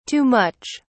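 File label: "too much", British English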